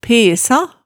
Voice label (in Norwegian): "pesa", Hemsedal